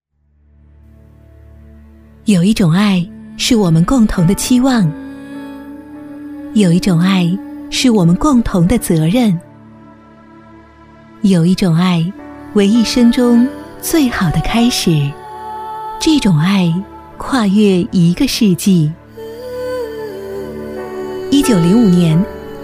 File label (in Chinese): 专题 产品 温情; 美赞臣